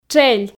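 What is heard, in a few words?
"тшель", Komi, female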